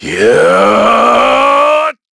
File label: Casting, kr; Shakmeh; kingsraid